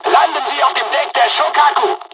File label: landing, dialogs german; War Thunder